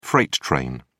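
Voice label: "freight train", British English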